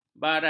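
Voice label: "barai", Malay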